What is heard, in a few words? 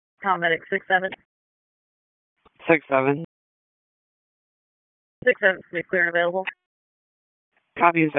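EMS DISP, radio; wake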